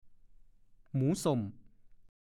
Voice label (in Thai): "หมูส่ม", ภาษาโคราช; แหนมหมู